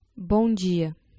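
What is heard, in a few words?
"bom dia", Portuguese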